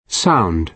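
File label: "sound", British English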